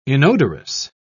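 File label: "inodorous", British English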